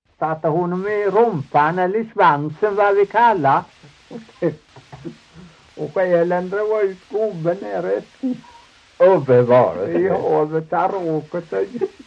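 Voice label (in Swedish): Torhamn Blekinge, dialekter; Folkminnen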